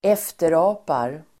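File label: "efterapar", Swedish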